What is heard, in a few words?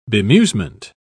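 "bemusement", British English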